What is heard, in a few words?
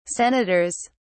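"senators", English, British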